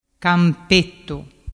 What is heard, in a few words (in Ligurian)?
"campettu", dialettu